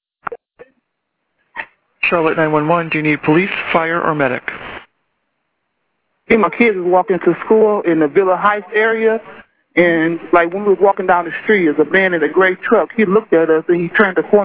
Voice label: 911 call; villa heights on lockdown after reports of shots fired